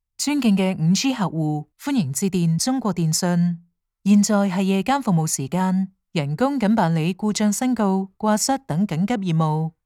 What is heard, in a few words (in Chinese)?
粤 智能客服 热线; 中国电信